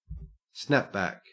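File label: "snapback", Australian English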